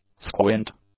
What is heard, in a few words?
"squint", english; nouns